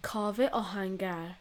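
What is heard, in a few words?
"Kaveh", Persian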